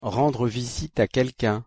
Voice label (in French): rendre visite a quelqu'un, pronunciation fr